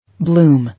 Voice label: "Bloom", English